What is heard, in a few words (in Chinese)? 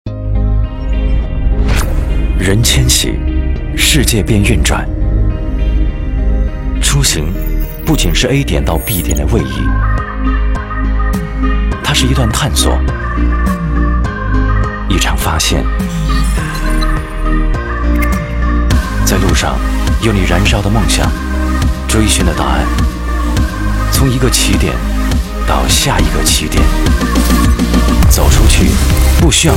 男; 滴滴出行-一切从出行开始